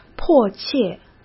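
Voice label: "迫切", Mandarin Chinese; chinese-flashcards; hsk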